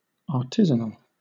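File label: "artisanal" English, British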